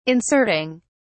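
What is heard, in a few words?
"inserting", British English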